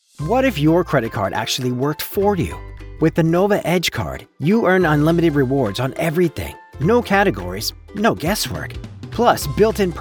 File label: W MUSIC